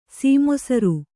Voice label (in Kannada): "ಸೀಮೊಸರು", Kannada